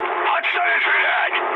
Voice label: player friendly fire, mood high, speech ru

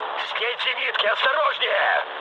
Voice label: damaged aa, mood low, speech ru; War Thunder

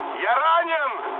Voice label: damaged pilot, mood med, speech ru; War Thunder

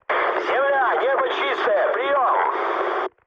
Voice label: chat, speech ru; War Thunder

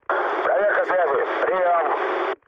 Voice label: chat, speech ru; War Thunder

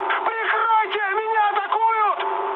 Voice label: aircraft damaged, mood high, speech ru

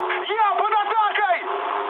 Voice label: aircraft damaged, mood high, speech ru

